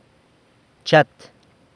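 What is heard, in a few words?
"chat", San Lucas Quiaviní Zapotec; kiss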